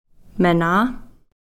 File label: "mena", brown bear